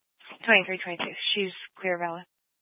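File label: Sheriff Dispatch, Scanner audio recording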